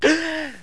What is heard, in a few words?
BOY; RADEO; CZECH